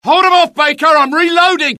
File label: reload, dialogue UK; mohaa